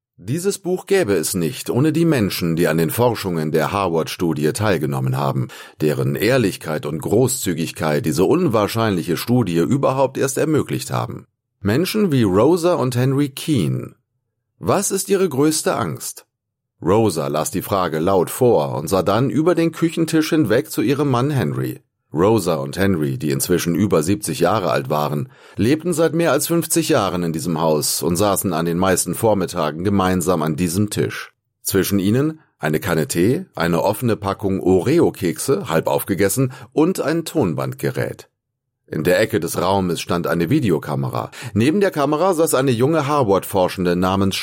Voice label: german narration